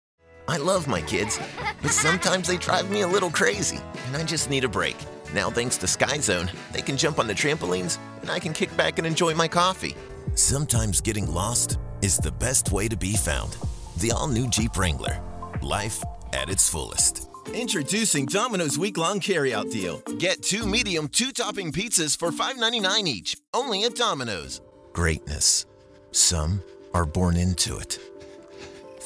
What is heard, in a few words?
Commercial